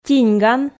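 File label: "тиньган", Komi, female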